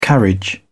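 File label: "carriage", English, British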